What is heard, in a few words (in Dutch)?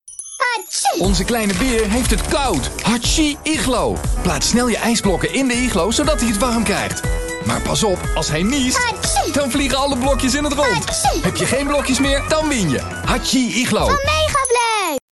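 Dutch male audio description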